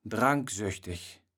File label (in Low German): "dranksöchteg", kaevels platt